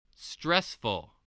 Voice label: "stressful", spelling